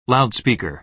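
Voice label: "loudspeaker", American English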